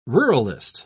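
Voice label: "ruralist", British English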